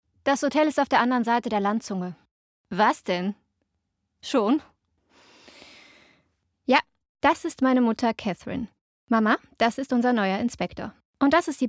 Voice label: Synchron Crimeserie; Muttersprache Deutsch

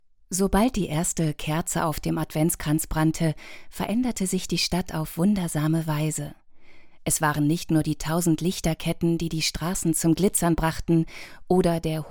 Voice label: horbuch; weihnachtswunder

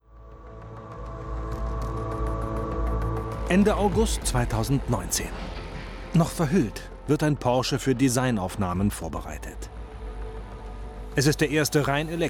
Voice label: doku; porschestory; n-tv

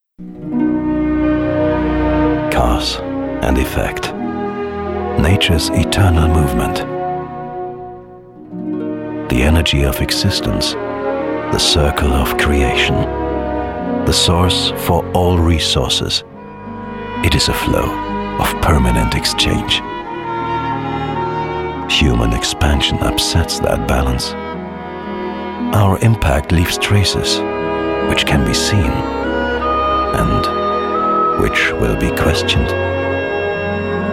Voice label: tv doku englisch

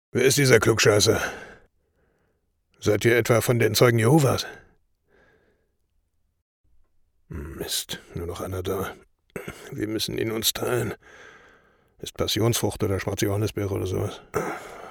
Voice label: synchron

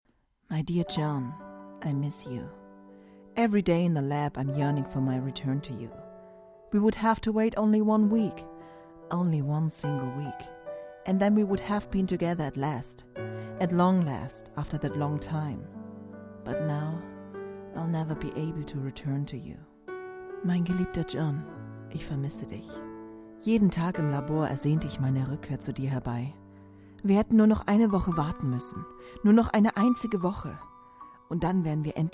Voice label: Brief eng deu mit Atmo

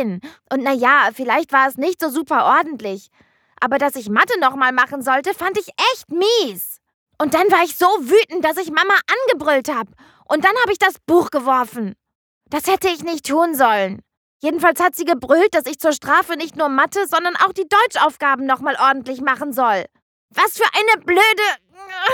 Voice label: elearning rolle junge hausaufgaben

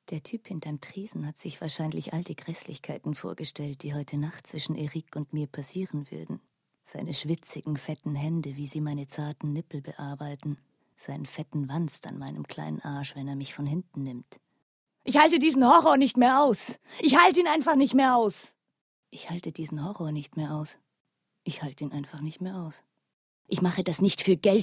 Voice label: hoerbuch compilation; Muttersprache Deutsch, Deutsch/Schweizerdeutsch

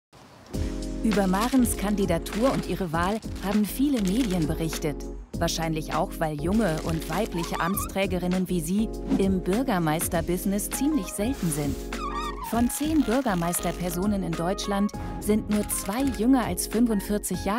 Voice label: doku tv; neuneinhalb ard

